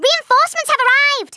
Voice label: "drop", Scouser